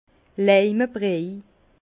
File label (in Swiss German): "leimebriej", Bas Rhin; vin